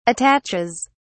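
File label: "attaches", English, British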